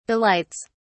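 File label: "delights", British English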